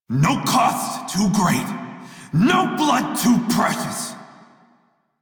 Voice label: Crew Near Death Male en; Dialog; Warframe; Railjack Crew; Red Veil